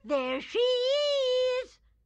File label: grandma mothercone close; Blind Drive